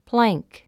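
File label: "plank", English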